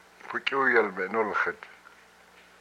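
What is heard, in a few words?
"my stomach", Hupa, male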